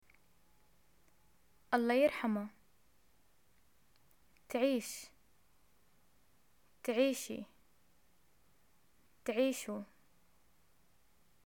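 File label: greet condolence, arabic, Jordanian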